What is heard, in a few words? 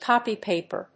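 "copy-paper", English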